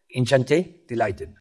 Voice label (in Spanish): Voice Acting es M